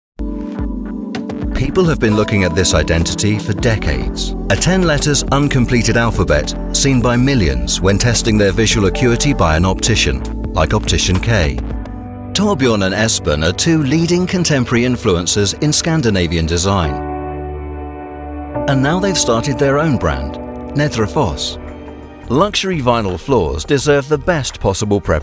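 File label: Corporate, British Male Voice Over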